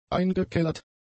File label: "eingekellert", German; adjectives